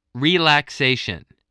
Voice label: "relaxation", spelling voice

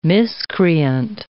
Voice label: "miscreant", English

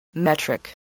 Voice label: "metric", voice, spelling

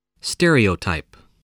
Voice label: "stereotype", voice

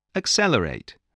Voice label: "accelerate", English

